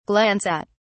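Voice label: "glance at", English, British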